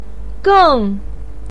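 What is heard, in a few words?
"geng" fourth tone, chinese, voice pinyin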